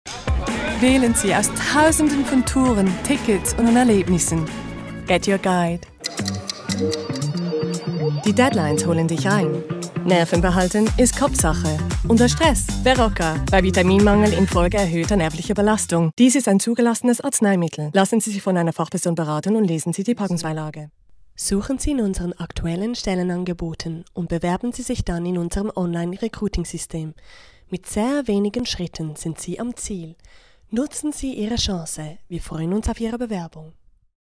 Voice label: German voice

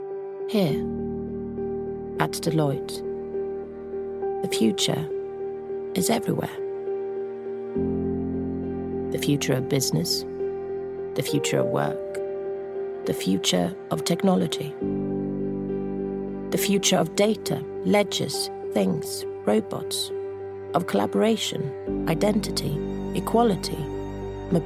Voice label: English

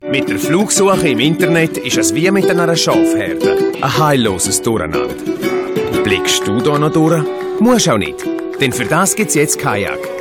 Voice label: Swiss German reel

